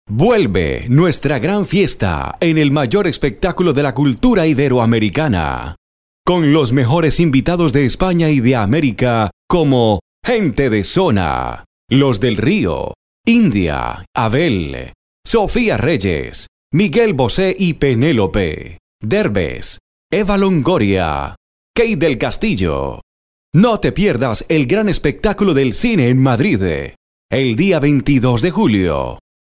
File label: locutor colombiano colombian voiceover